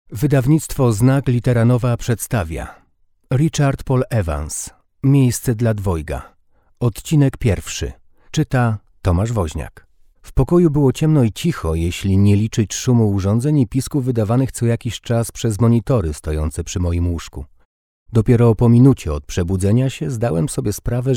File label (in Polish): audiobook; Miejsce dla dwojga